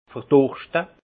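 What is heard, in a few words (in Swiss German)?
"verdurschte", alsace Haut-Rhin; vin; mourir de soif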